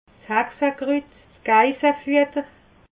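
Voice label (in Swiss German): "hexekrut", Alsatian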